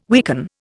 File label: "weaken", Indian